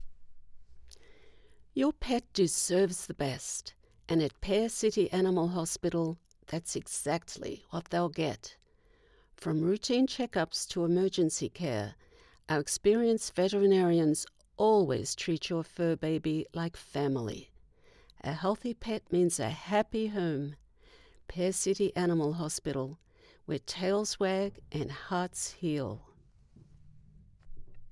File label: TV Radio Ad Animal Vet Service